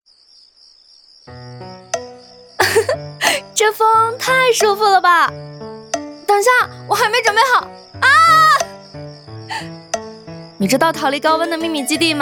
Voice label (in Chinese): 女 自然 文旅